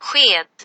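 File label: "spoon", Swedish word; sv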